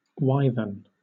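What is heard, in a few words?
"wyvern", British English; pronunciations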